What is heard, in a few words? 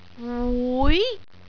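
"wui2", Cantonese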